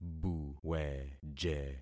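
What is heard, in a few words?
"buweje", concatenated syllables; Affixing experiment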